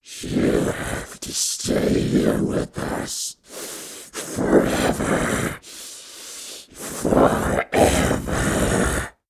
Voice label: Monster Demon Voice You Have To Stay